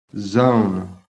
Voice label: "ZONE", american